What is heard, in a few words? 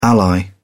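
"ally", British English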